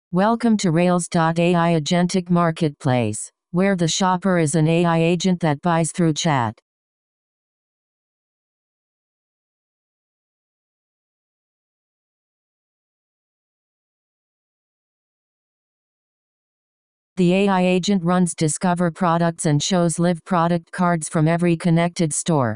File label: walkthrough voiceover